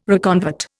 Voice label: "reconvert", Indian